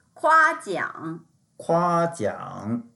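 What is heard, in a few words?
"kua1jiang3", Mandarin Chinese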